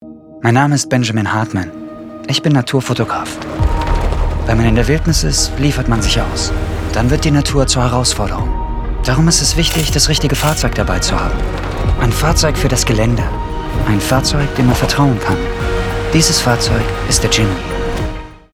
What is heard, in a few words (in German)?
werbung; suzuki jimny